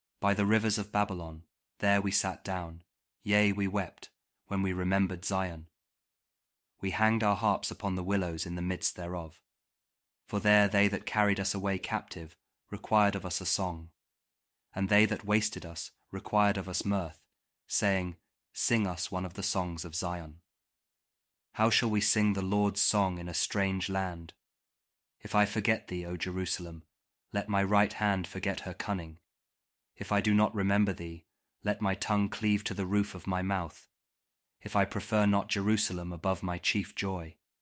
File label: psalm kjv king james audio bible